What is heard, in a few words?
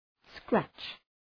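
"scratch" English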